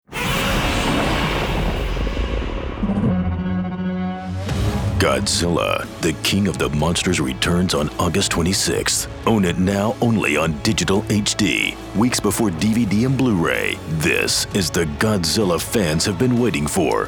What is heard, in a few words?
Spot; Godzilla